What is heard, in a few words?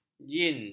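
"jean", Malay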